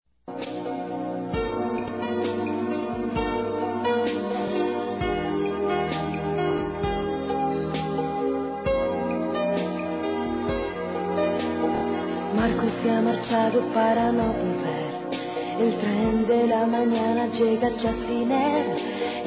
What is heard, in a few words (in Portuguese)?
Aniversário Tia F, Telemensagens; Aniversários; Aniv Familiar